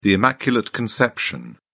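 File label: "immaculate conception", British English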